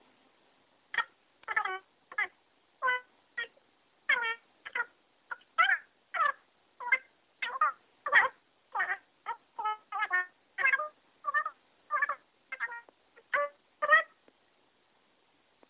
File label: CHIPMUNK DEMON SKYPE